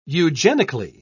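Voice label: "eugenically", British English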